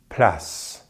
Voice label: "place", French; Consonantes en francés; easypronunciation